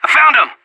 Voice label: radio taking fire; Counter-Strike Global Offensive